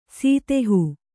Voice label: "ಸದೇಹ", Kannada

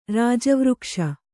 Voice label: "ರಾಜವೃಕ್ಷ", kannada

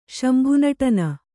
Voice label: "ಶಂಭುನಟನ", Kannada

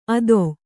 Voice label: "ಅದೊ", Kannada

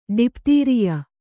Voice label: "ಡಿಪ್ತೀರಿಯ", Kannada

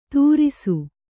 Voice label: "ತುರಿಸು", kannada

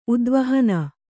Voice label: "ಉದ್ವಾಹನ", Kannada

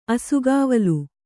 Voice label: "ಅಸುಗಾವಲು", Kannada

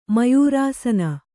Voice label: "ಮಯೂರಾಸನ", Kannada

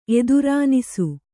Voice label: "ಎದುರಾನಿಸು", kannada

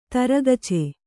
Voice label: "ತರಗಚೆ", Kannada; taragace; noun; foetid cassia.

